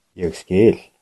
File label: understand, Icelandic